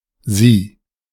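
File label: "Sie", German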